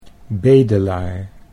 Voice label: "bedelaar", Dutch

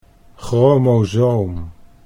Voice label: "chromosoom", Dutch

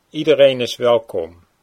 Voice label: iedereen is welkom, Dutch

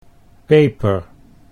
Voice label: "peper", Dutch

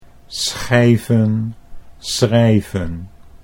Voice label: schijven schrijven, Dutch

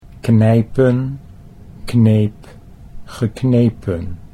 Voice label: knijpen kneep geknepen, Dutch

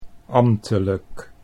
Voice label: "ambtelijk", Dutch

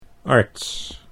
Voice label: "arts", Dutch

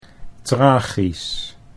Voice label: "tragisch", Dutch

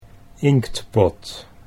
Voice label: "inktpot", Dutch

